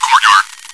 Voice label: chitchat; svencoop